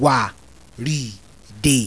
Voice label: "waride", Yoruba; TONES; WA RI DE set

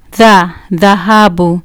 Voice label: "dhahabu", Kiswahili

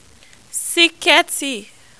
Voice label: "sikeeti", Yoruba

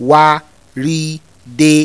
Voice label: "waride", Yoruba; TONES; WA RI DE set

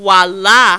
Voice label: "walaa", Yoruba; CONSONANTS; wahala walaa awo

